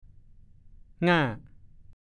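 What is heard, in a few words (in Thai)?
"ง่า" ภาษาโคราช; เงื้อมือ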